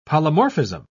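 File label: "polymorphism", British English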